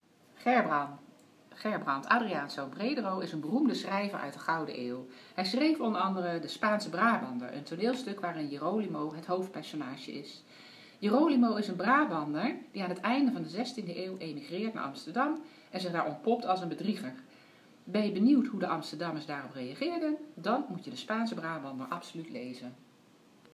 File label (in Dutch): Fragment Vlaardings